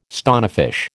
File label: "stonefish", American